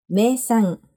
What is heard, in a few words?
"meisan", Japanese; famous product